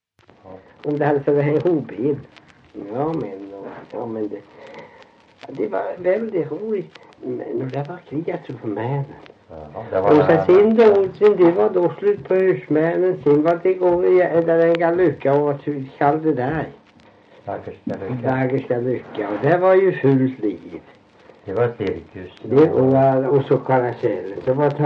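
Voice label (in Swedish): Värö Halland, dialekter; Folkminnen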